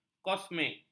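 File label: "kosmik", Malay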